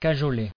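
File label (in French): "cajoler" French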